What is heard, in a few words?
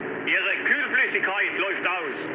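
German damaged water mood med, speech; War Thunder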